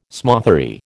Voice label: "smothery", American

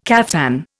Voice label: "caftan", Indian

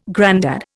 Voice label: "grandad", Indian

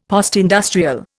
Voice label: "postindustrial", Indian; Dictionary

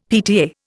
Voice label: "PTA", Indian; Dictionary

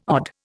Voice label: "odd", Indian

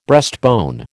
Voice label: "breastbone", American

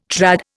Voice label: "trad", Indian

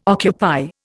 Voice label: "occupy", Indian

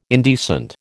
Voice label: "indecent", American

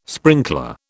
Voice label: "sprinkler", British

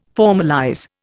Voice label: "formalize", Indian

